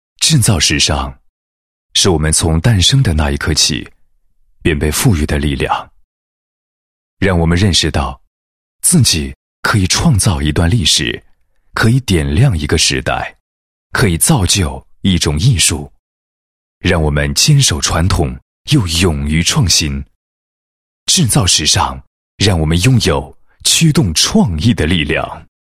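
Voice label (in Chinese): 男 宣传广告, 服装学院分院成立宣传; 广州分院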